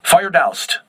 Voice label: voice message fire doused, crew ships english

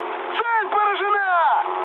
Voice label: bomb success, mood high, speech ru; War Thunder

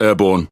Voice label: Speech Pilots Fighter Airborne, EN GB; World Of Warships

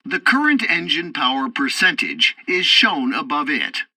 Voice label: tutorial fighter throttle, english; War Thunder

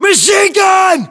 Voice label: US threat mg, battlechatter, english

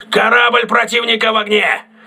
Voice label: voice message enemy on fire, crew ships russian

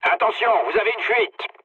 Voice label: damaged water mood low, speech fr; War Thunder

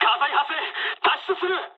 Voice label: on fire mood high, speech jp; War Thunder

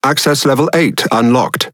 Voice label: Pirate Speech Access Level; World Of Warships

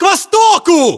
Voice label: direction relative east, ru; localized english

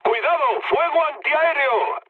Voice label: damaged aa, mood low, speech; War Thunder

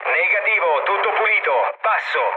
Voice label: chat, speech it; War Thunder

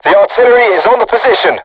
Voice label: voice message art charged, crew tanks vietnamese

